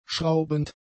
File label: "schraubend", German; adjectives